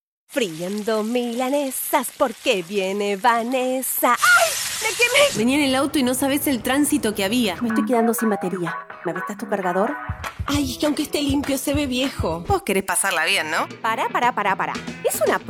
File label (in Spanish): actings español argentino